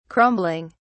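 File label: "crumbling", British English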